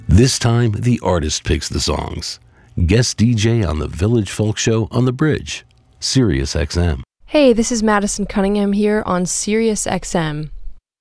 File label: promo